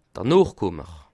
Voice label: "nochkummer", Alsace

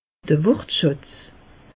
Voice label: "wortschatz", alsace; meteo

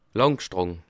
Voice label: "lankstang", Alsace

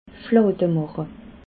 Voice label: "flademache", alsace

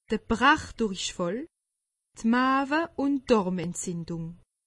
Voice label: "brach durichfall", alsace; medecine

